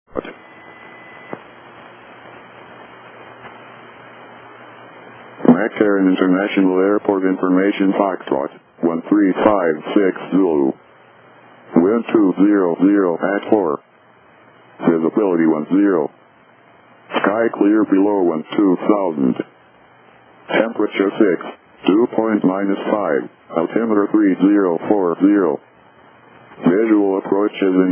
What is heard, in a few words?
ATIS, USA; las